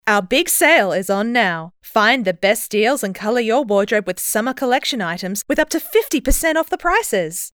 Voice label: eng

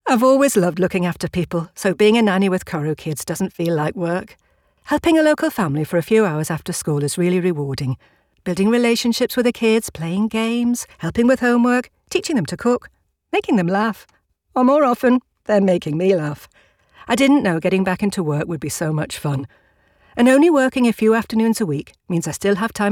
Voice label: Commercial

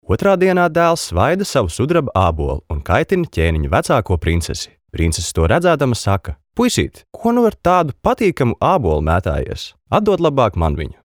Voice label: lv

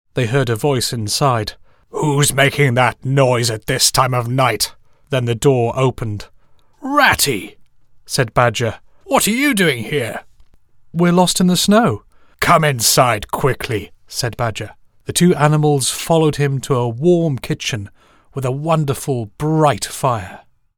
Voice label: Audiobook

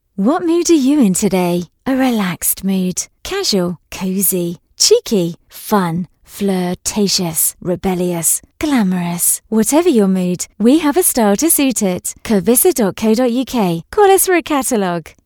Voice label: Commercial

